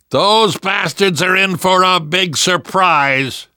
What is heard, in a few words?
hurrah, survivor voice; Left 4 Dead 2; left4dead2 dlc3